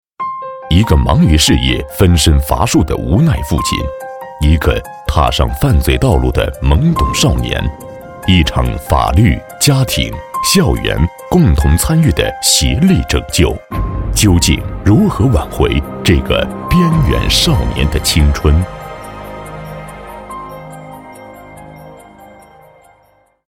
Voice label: 男 片花 节目预告

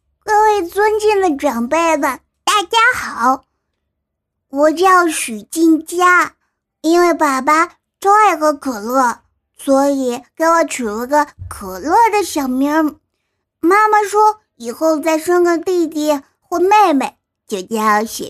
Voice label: 女 动漫 男童几个月

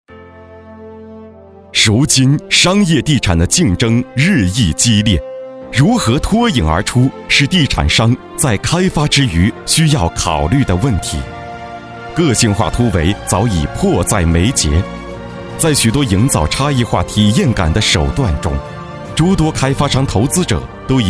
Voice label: 男 专题; 荣盛商业地产天幕

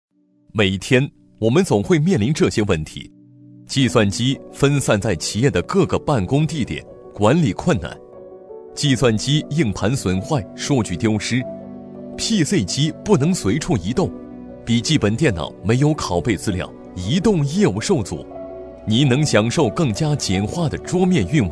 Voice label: 男 专题; 卓朗云桌面

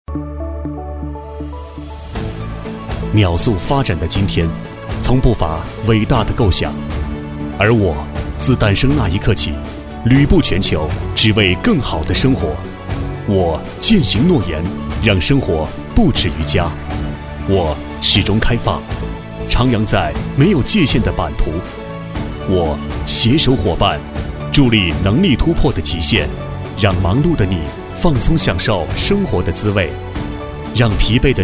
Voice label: 男 专题 宣传片; 海尔资源平台宣传片

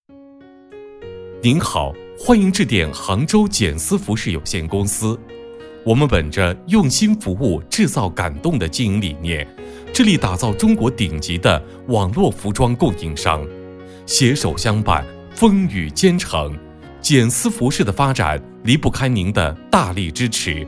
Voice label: 男 彩铃; 杭州服饰公司

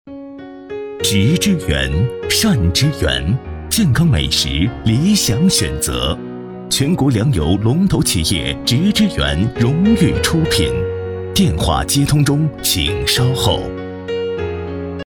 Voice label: 男 彩铃

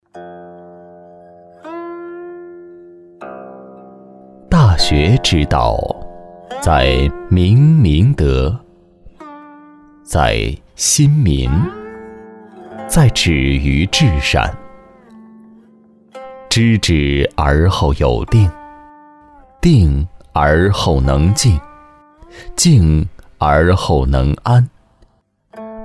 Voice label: 男 朗诵 古文; 古文《大学之道》

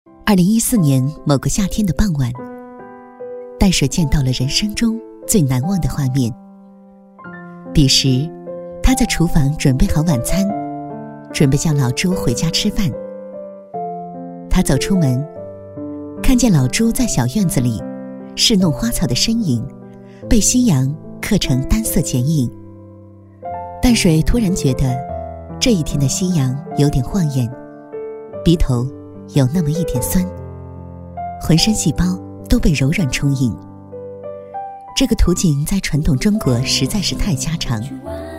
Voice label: 女-旁白; 某个夏天的傍晚

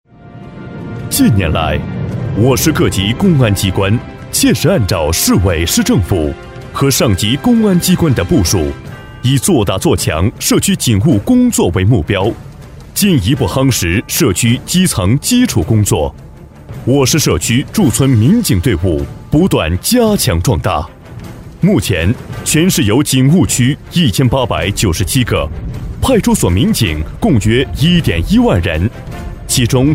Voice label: 男 专题 公安宣传 大气昂扬

